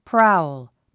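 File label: "prowl", English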